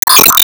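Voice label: Voice Emotion Call Name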